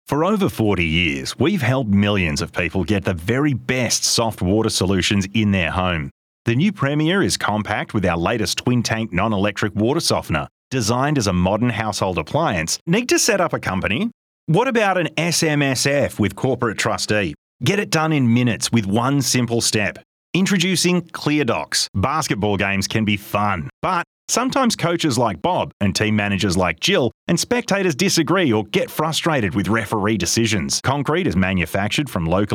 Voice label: Natural